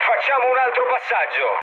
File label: bomb failure mood low, speech it; War Thunder